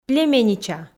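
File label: "племеннича", Komi, female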